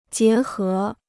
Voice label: "结合", Chinese